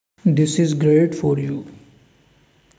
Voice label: voice message